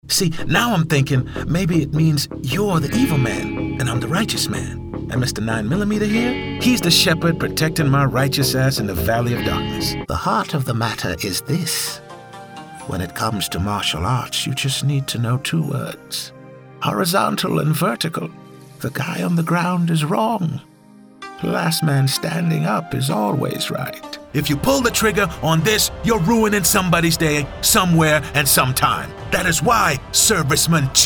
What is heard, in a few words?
Animated